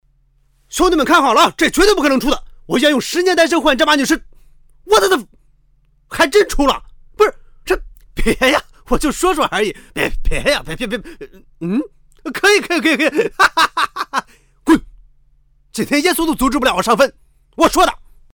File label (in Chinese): 游戏 配音